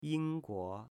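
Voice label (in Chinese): "Yingguo", Chinese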